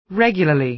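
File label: "regularly", American English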